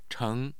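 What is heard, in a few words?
"cheng", Mandarin Chinese, tone 2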